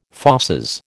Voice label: "fauces", American

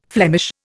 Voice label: "Flemish", Indian

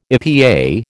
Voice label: "EPA", American

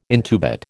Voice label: "intubate", American

